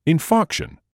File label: "infarction", English